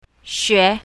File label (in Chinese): "xue2", pinyin south